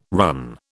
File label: "run", British